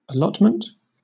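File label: "allotment", British English; pronunciations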